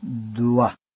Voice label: "duwa", Pashto